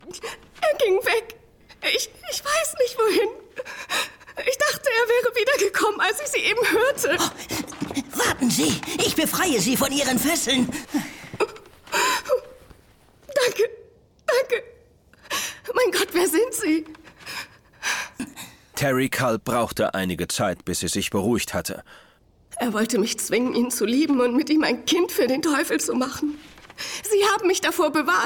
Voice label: Hoerspiel; Tony Ballard; Terry

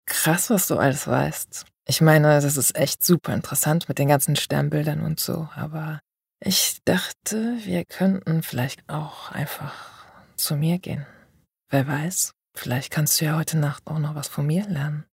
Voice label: Sexy

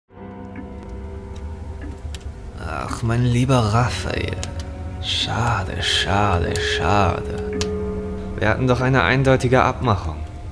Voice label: Sprachprobe Mafia; LOFT VOICES

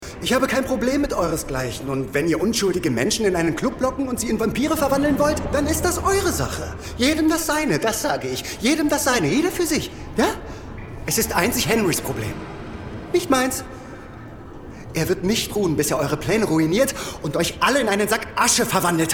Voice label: Synchron Komoedie

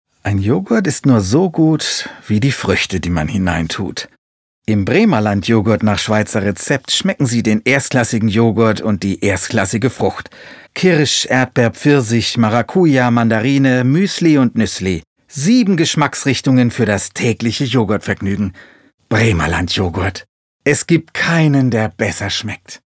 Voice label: Werbung Joghurt

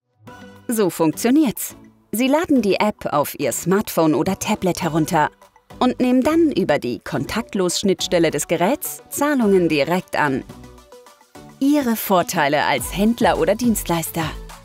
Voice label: Erklaervideo; VRPayment

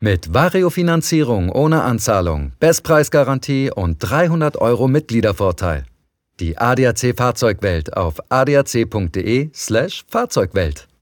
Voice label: Werbung sachlich; ADAC

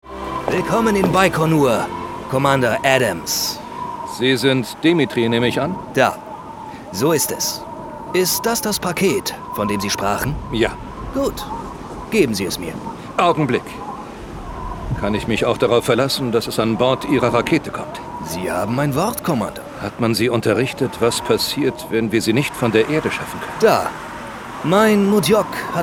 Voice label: Hoerspieldemo; LOFT VOICES

